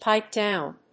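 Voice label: "pipe down", English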